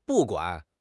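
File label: "bu4 guan3", Mandarin Chinese; HSK4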